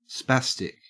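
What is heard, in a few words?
"spastic", Australian English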